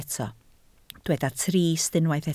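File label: "trist" Welsh, spoken word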